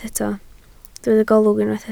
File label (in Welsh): "golwg", Welsh